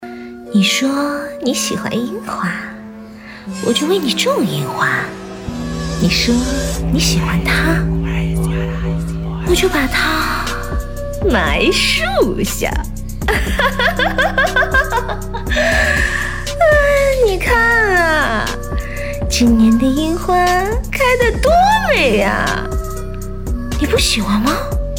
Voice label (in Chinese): 疯批女人